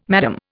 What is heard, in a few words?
"Madame", Indian